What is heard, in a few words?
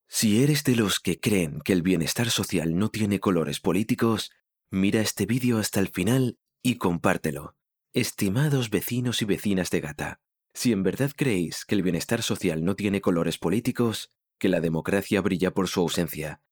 ESP Male